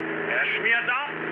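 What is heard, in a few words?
German aircraft destroyed mood med, speech; War Thunder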